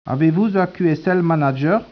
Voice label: speech, French